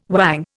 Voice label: "whang", Indian